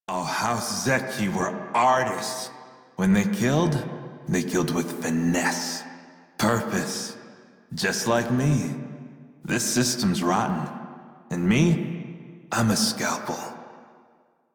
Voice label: Crew Idle Male en; Dialog; Warframe; Railjack Crew; Red Veil

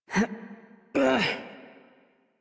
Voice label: Horse Mount Adult; Warframe; Duviri

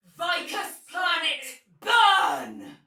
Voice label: Attack Heavy Tech, Soldier Female, Battle; Dialog; Barks; Sinecure

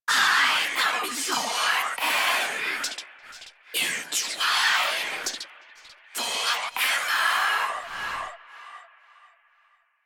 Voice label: Whisper; Protea Quest; Protea Whispers; Protea Specter

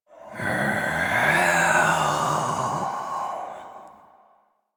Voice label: Intelligible Whisper A; Rell; Warframe; Harrow

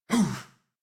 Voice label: Abil Jump, en; Warframe; New War; Teshin

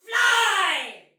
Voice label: Shoot Arrow Soldier Female, Battle; Dialog; Sinecure

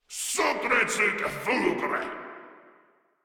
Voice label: Cover Me, Grineer Male; Warframe

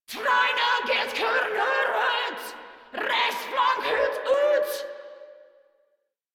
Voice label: Attack Grineer Female; Warframe

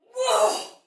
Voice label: Attack Soldier Female, Battle Barks; Sinecure

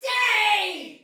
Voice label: Attack Normal Soldier Female, Battle Barks; Dialog; Sinecure

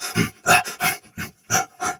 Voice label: soldier sword agent male; Sinecure

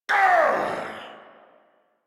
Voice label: angry, en; Warframe; Tyl Regor Boss; Efforts

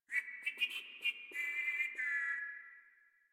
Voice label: Patrol Grineer Male, Barks, Battle

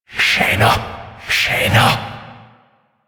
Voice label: Possessed Vocal Enemy Spotted; Enemies; Warframe; Priest Quest; Possessed Red Veil

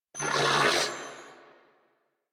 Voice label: Surprised, Female; en; Warframe; New War; Narmer Grineer

